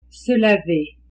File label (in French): "se laver", French